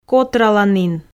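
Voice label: "котраланін", Komi, female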